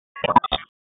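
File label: Police Northwest District Tac, radio; Raleigh; Tac 1; wake; p25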